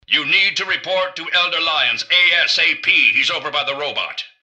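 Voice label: greeting, robot; citadel; mrgutsy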